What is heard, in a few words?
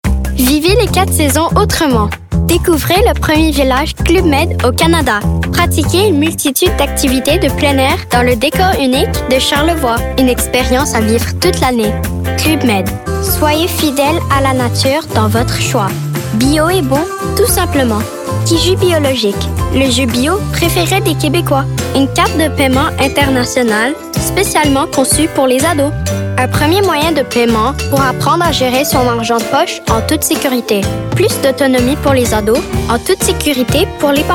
French